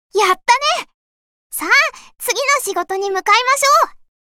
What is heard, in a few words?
Voice victory